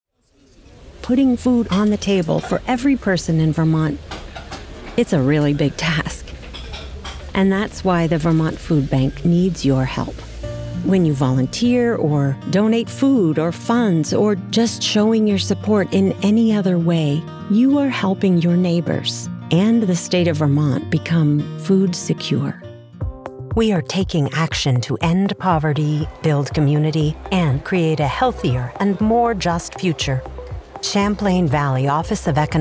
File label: Narration; voice over; Edge Studio